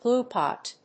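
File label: "glue pot", English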